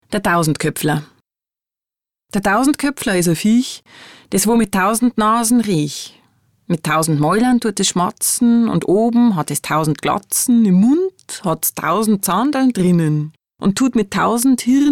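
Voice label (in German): wolke dialekt bay, Bavarian dialect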